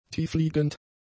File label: "tiefliegend", German; adjectives